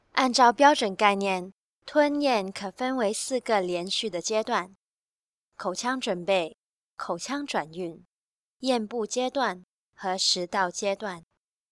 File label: narration mandarin